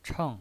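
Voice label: "cheng", Mandarin Chinese pinyin voice, fourth tone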